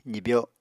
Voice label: "zibyo", Apatani